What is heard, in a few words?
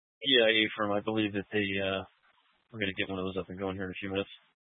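Incident Tactical 1, Scanner audio recording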